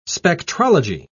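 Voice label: "spectrology", English, British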